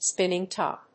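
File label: "spinning-top", English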